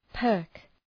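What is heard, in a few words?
"perk", English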